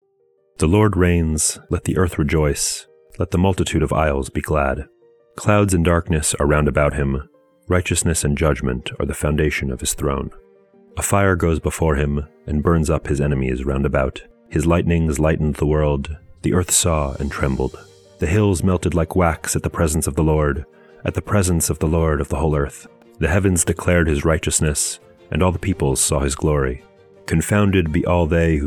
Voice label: poetry and prayer psalm english